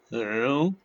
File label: short voyels arabic alphabet